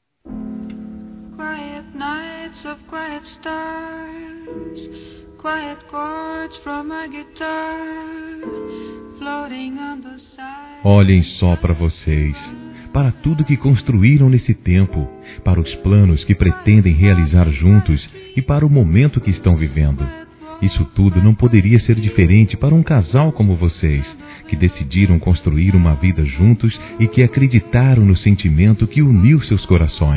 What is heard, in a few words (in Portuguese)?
Aniversário Casamento Geral Masculino; Telemensagens 2017; Aniversários